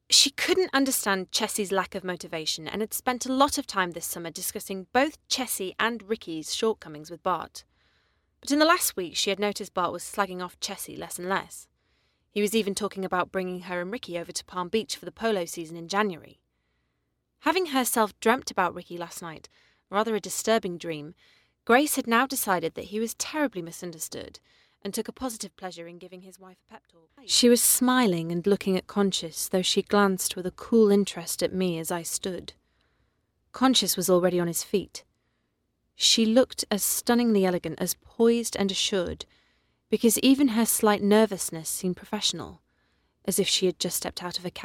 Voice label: Narrative